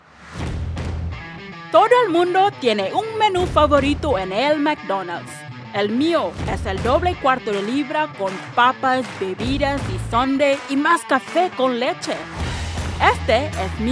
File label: Spanish